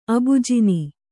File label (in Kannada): "ಅಬುಜಿನಿ", Kannada